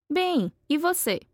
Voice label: conversation